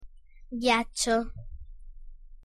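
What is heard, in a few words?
"ghiaccio", pronunciation Italian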